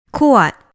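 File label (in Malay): "kuat", Malay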